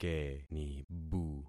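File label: "kenibu", concatenated syllables; Affixing experiment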